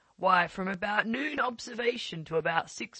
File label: LPC Robust Resynthesis; VowelBender; Source Formant examples